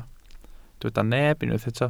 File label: "neb", Welsh